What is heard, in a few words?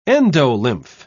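"endolymph", British English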